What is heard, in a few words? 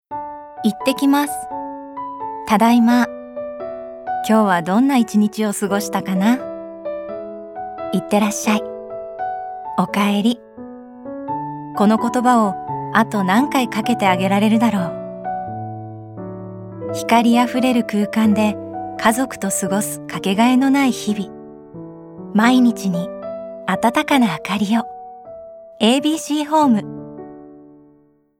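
Calm Natural, narration, Japanese; 番組ナレーション; 「そんじょそこら商店街 再生の物語」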